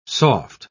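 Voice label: "soft", English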